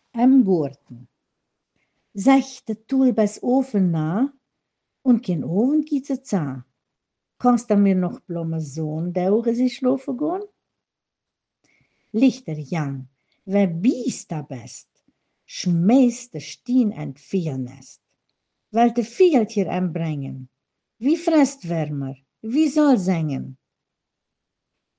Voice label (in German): kinderverse, mundart; Kinderecke; Äm Gorten